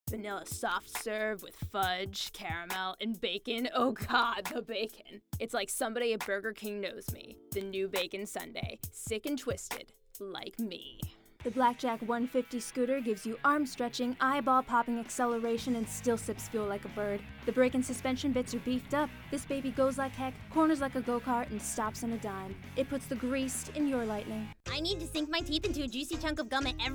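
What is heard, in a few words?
COMMERCIAL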